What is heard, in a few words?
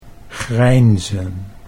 "grijnzen", Dutch